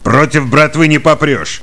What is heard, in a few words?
Cheer; Kingpin Fargus